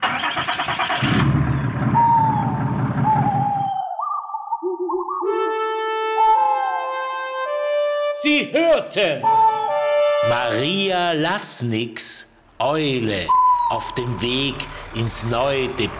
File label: radio jingle eule; orf